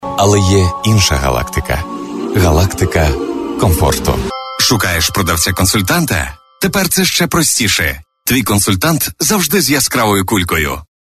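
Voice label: ukrainian voiceover locutor ucraniano, Ukrainian male voice over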